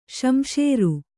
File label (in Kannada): "ಸಂಸಾರಿ", Kannada